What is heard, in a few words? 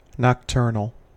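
"nocturnal", US English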